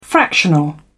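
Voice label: "fractional", English, British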